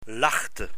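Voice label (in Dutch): "lachte", Dutch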